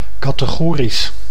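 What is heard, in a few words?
"kategorisch", Dutch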